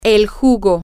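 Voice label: the juice, Spanish, female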